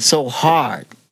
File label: LoFi Phrases, LoFi Vocals; Vengeance Samples